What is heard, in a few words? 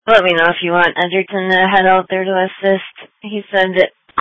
Sheriff Dispatch; sdr